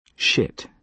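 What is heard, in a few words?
"shit", British English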